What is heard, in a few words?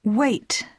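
"wait", English; poitiers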